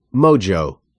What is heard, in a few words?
"mojo", American English pronunciation